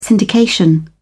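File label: "syndication", British English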